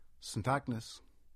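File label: "St Agnes", English; place-names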